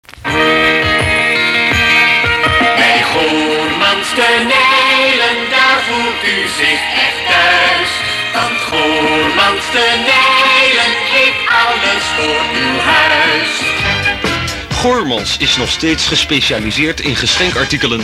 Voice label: commercial